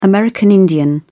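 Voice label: "american indian", English, British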